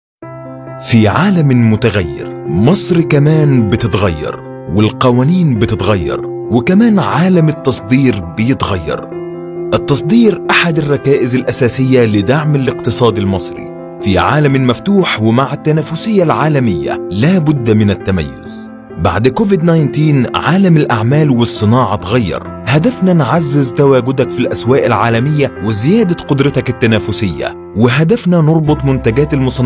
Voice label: Corporate Voice over; ExpoLink

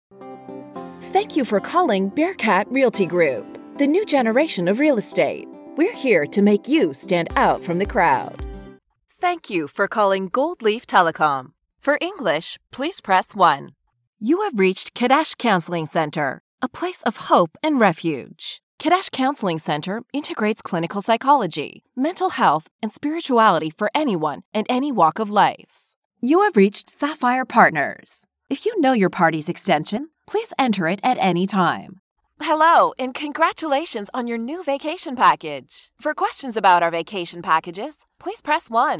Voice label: Telephony

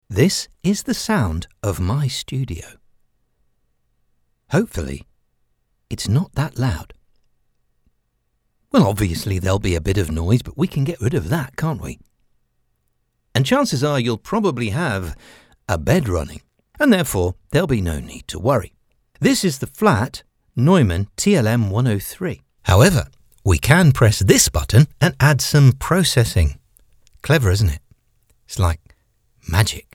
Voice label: studio

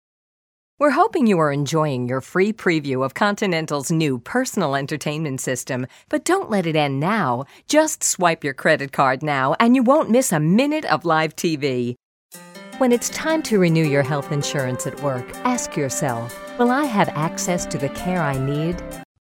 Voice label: Home Studio Sample

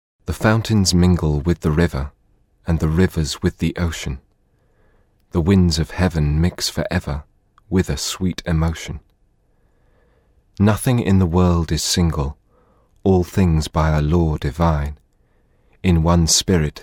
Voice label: poem

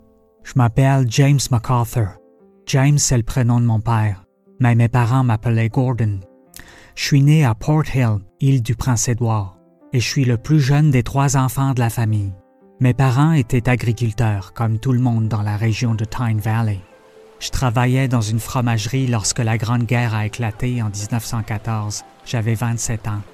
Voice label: French Canadian Veteran Tale, Narration, Male; Anciens Combattants Canada

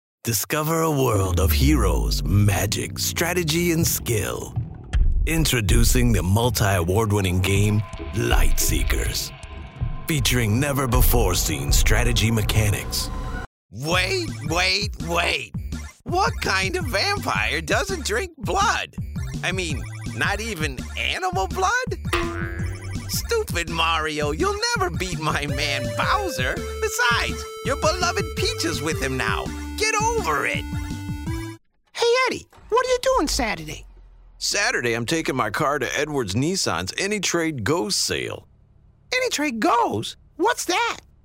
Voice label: characters video games

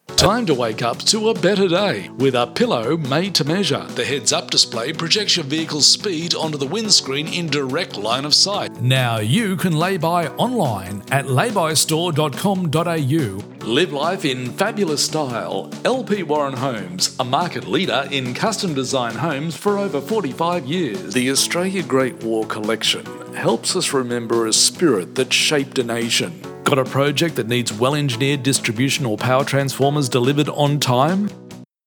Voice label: Commercials

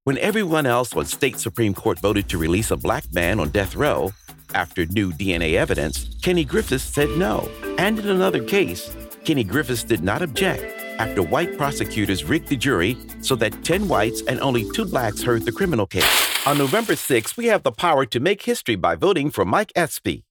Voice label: Democratic Positive, Male Voice; Democratic Spots / Candidate Negative/Positive

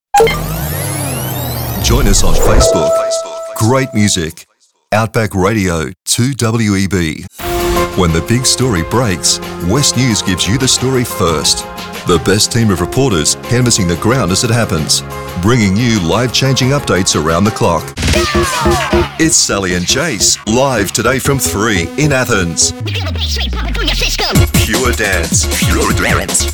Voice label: Imaging

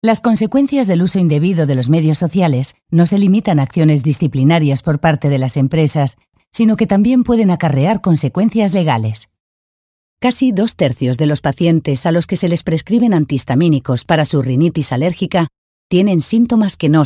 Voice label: Medical E-learning

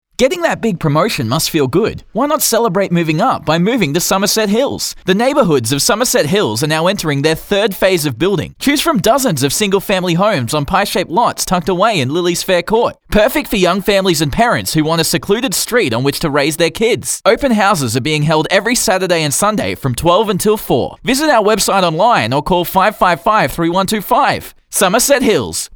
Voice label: Real Estate Commercial

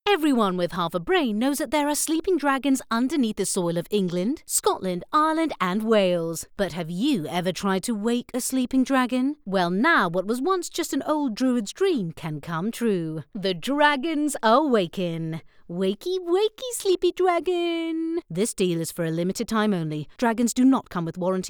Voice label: ad; Dragon

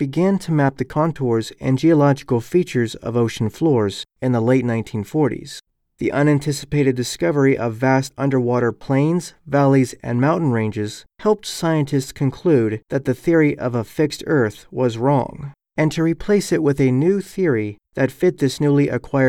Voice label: eLearning Science

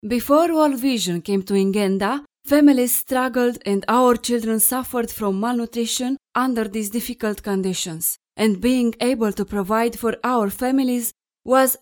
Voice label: ENGLISH

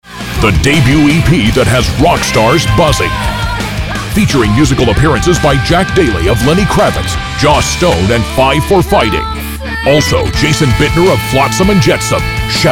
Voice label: Concert Album Promo

